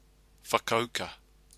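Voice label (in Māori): "whakauka", Māori word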